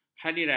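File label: "hadirat", Malay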